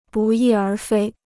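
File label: "不翼而飞", Chinese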